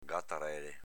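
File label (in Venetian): "gatarele", Veneto dialect; s.f.inv. solletico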